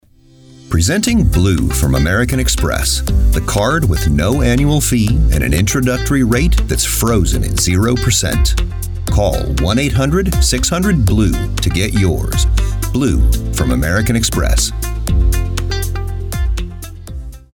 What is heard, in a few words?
Commercial